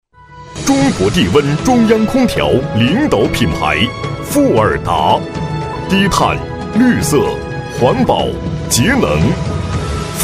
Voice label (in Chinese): 广告, 家居家电, 中央空调, 激情